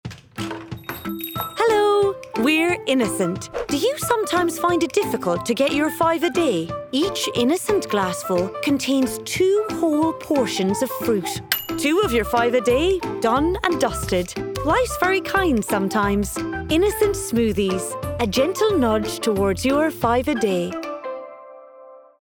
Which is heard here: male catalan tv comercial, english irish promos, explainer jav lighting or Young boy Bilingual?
english irish promos